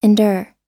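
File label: "endure", US English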